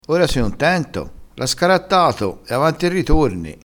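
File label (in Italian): "scarattato", dialetto crespinese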